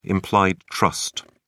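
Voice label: "implied trust", British English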